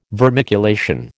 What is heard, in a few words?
"vermiculation", American